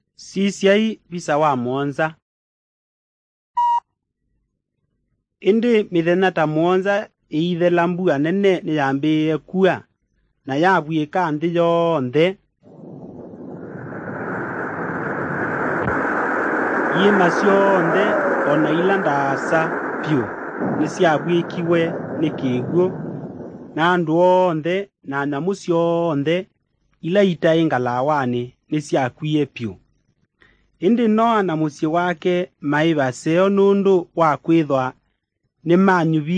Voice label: kikamba drama; good news; bible